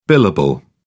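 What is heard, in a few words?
"billable", English, uk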